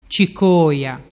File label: "cicoia", dialettu